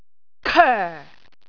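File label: "koe4", Cantonese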